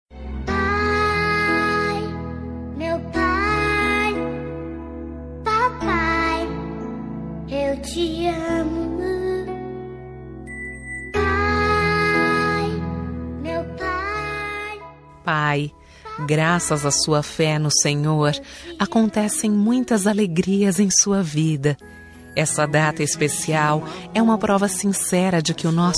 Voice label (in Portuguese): dia dos pais feminina gospel; evangelico voz